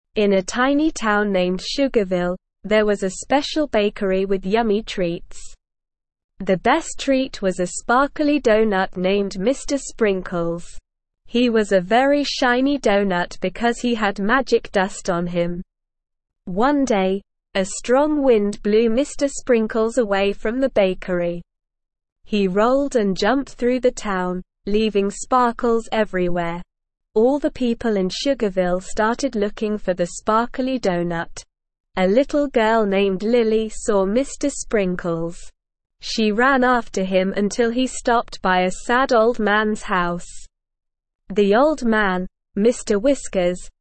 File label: ESL Short Stories for Kids Beginner SLOW Reading; The Dazzling Doughnut